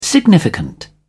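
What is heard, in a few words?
"significant", English, British English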